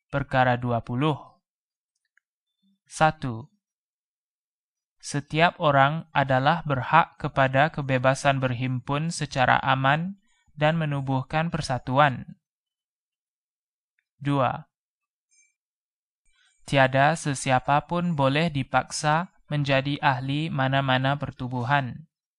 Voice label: Malay